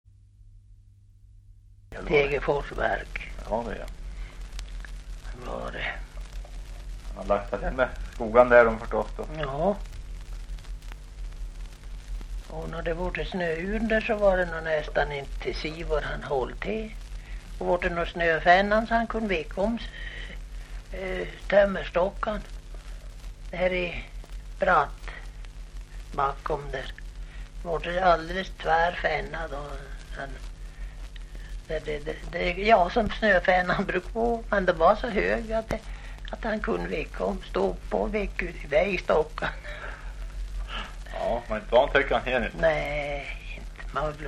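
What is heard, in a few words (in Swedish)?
Häggenås Jämtland, dialekter; Folkminnen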